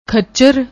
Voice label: "khachar", Punjabi; ਖੱਚਰ

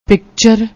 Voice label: "picture", Punjabi

